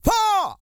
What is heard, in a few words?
COUNT, Vocal Planet, R&B & Dance, COUNTING; ILIO